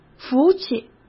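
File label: "福气", Mandarin Chinese; chinese flashcards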